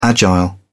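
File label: "agile", British English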